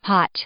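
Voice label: "hot", English, female; adjective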